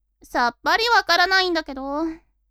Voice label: woman